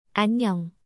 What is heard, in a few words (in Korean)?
"안녕", Korean; アンニョン